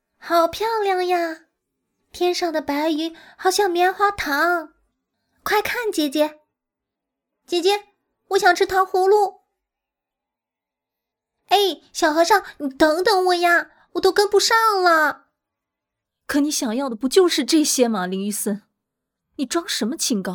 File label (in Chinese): 角色音